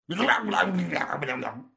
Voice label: crazy dave talking

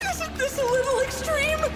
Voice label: dub; izuku

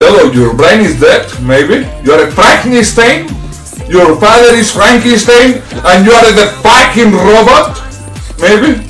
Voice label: robot

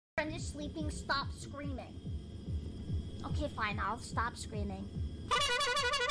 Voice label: stop screaming

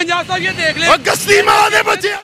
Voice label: mom sound

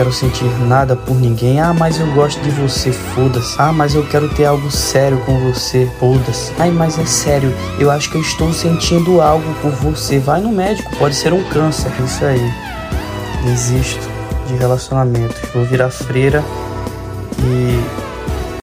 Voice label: boy sad

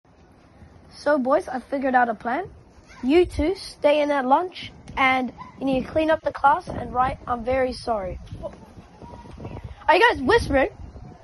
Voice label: whispering

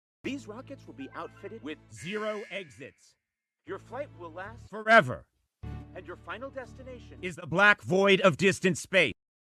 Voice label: flight pa

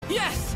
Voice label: "yes", dub; izuku

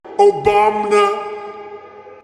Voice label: reverb; obamna

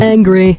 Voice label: angry

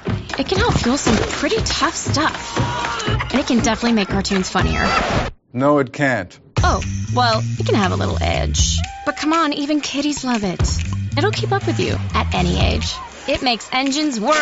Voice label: milk commercial

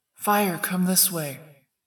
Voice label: spooky voice

